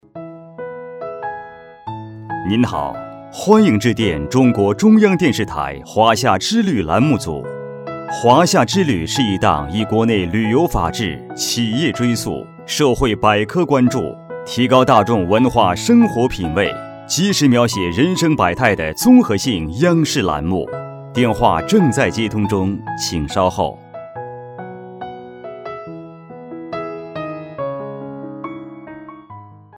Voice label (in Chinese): 男, 国语, 大气 磁性, 电话彩铃